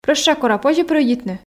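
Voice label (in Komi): прӧща кора позьӧ прӧйдитны, Komi, female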